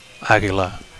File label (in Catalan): "aguila", Catalan; eagle